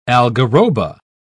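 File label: "algarroba", British English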